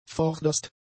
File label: "vorderst", German; adjectives